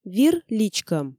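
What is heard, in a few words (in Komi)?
"вир личкӧм", Komi, female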